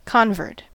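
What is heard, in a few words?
"convert", American English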